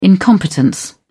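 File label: "incompetence", British English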